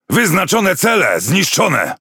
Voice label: CHAT FLAGMANS SUCCESS, Languages PL; PVE; World Of Warships